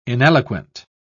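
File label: "ineloquent", British English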